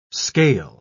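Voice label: "scale", English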